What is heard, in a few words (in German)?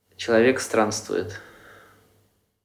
russisch